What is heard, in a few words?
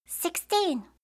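"sixteen", English; eng suuji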